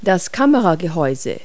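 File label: "camel body", English word; Multi-Lingual Talking Picture Dictionary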